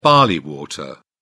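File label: "barley water", British English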